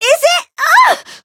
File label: Voice damaged; millecha